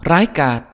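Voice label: awesome, Finnish; gcompris-data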